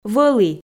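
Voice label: "вӧлі", Komi, female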